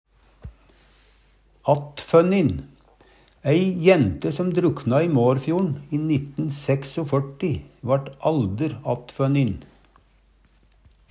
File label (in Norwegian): "attfønnin", Numedalsmål